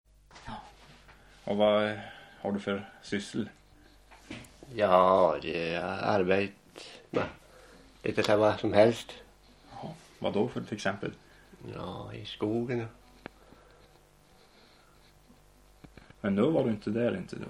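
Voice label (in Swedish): Sundsjö Jämtland, dialekter; Svenska sagor; Folkminnen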